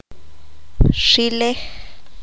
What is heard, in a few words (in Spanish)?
"cabello", Q'anjob'al